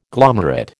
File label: "glomerate", American